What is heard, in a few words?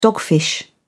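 "dogfish", British English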